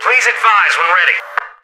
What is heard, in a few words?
radio nag, soldier; Left 4 Dead 2; Source Engine; video games; npc